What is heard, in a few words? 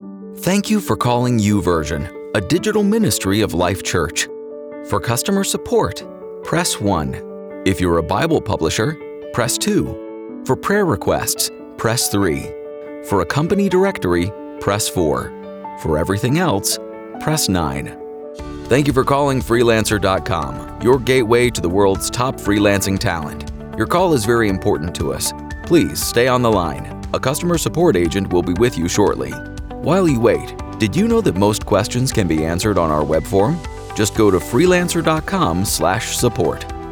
IVR-Telephony